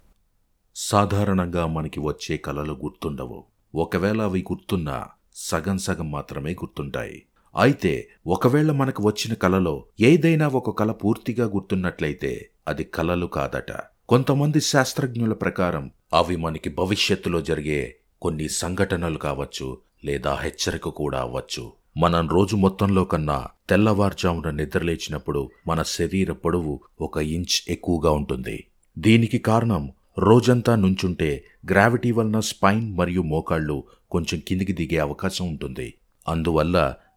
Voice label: Telugu Mystery, Deep Telugu Voice Male Voice Over Artist; Dreams